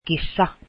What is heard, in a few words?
cat, Finnish